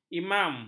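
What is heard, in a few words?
"imam", Malay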